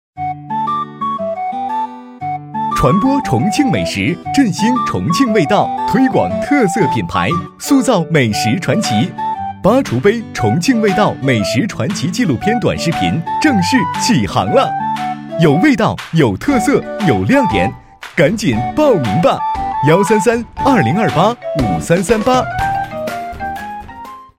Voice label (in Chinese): 美食 广告